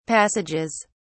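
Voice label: "passages", British English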